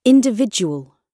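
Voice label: "individual", British English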